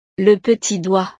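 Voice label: "le petit doigt", French; partes del cuerpo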